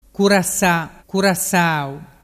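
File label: "curassasau", Vernazzese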